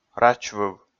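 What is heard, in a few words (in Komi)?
"рачвыв", Komi, male; audio dict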